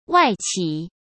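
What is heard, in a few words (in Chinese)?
"外企", Mandarin Chinese; chinese flashcards; hsk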